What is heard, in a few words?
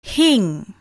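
"hing", Malay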